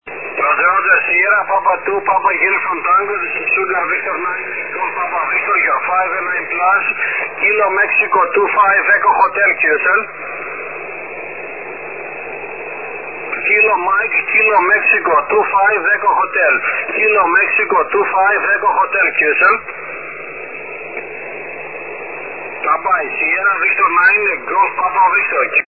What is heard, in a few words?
6m ssb